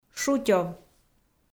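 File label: "шутёв", Komi, female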